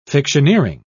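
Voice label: "fictioneering", English, British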